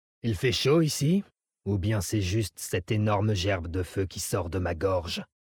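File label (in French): French Male Blague; GerbeFeu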